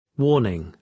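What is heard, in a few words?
"warning", British English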